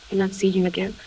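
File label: voice acting, es, female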